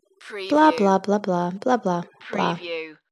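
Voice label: Woman Blah Blah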